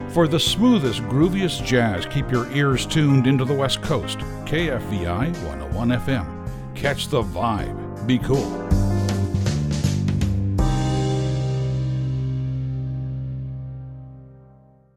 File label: Smooth Jazz Promo; KFEI